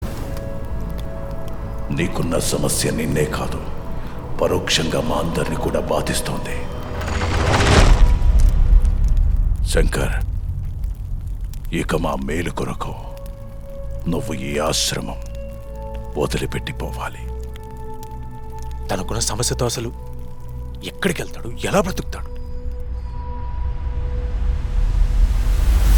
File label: Title Announcement Dialogue Ringtone; Vishwaksen; GAAMI